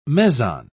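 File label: "meson", British English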